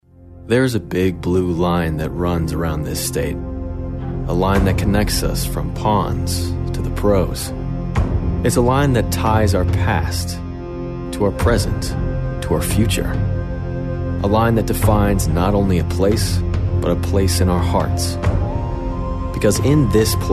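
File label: English US male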